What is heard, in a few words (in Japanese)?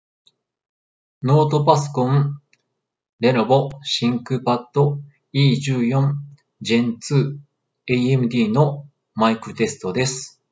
ThinkPad E14 Gen2 AMD モノラルマイク; レノボ